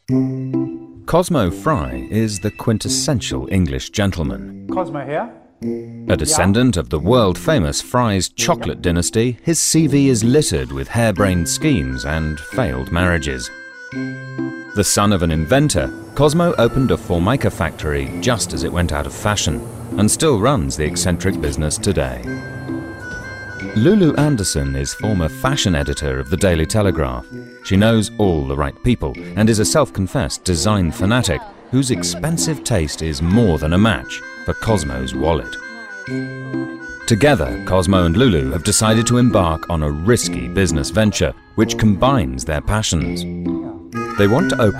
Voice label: Narration; Risky Business